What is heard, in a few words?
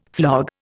"flog", Indian